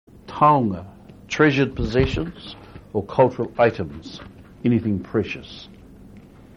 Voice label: "taonga", Maori